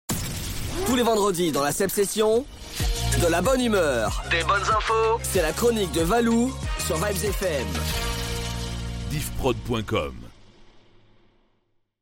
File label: Jingle; Vybz Fm; Seb session